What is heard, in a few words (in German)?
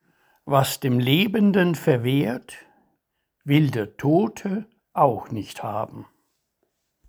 Verwehrt, Lyrik, Gedichte eigene Lesung